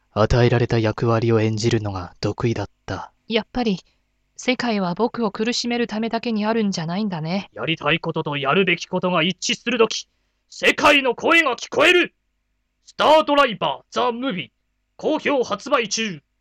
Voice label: japanese

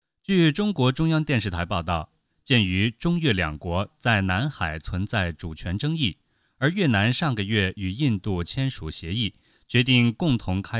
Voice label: news

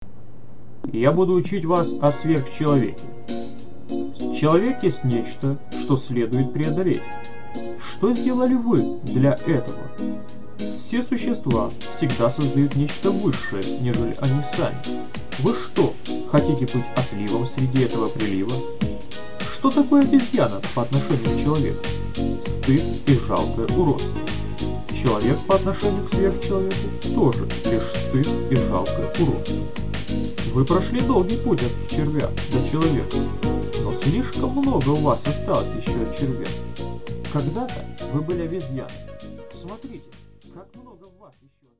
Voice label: Russian

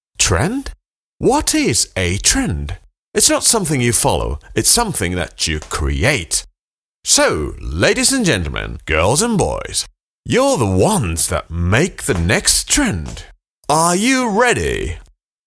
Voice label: en

